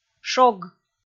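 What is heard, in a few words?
"шог", Komi, female; kpv audio dict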